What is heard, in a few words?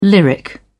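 "lyric", English, British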